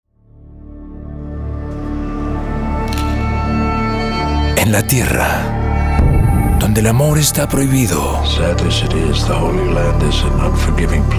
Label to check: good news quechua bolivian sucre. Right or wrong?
Wrong